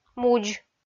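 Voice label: "мудз" Komi, female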